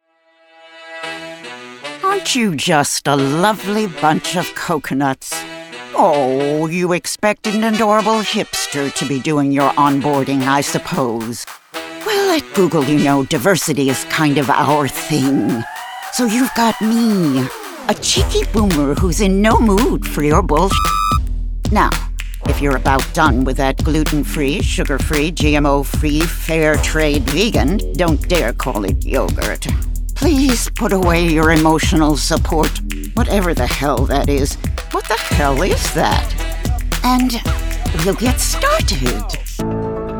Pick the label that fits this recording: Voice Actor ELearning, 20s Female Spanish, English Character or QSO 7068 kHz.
Voice Actor ELearning